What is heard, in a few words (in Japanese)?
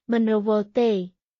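クメール語（カンボジア語）の日常会話基本フレーズ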